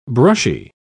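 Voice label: "brushy", English, British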